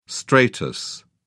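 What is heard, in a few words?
"stratus", English, British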